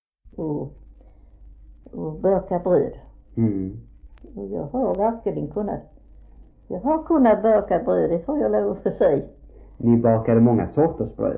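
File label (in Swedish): Fleninge Skåne, dialekter; Folkminnen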